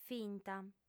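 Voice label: "finta", Sardinian, female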